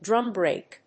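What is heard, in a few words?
"drum brake", English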